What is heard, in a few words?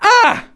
pain, hostage; Counter-Strike Global Offensive